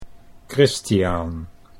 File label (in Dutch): "Christiaan", Dutch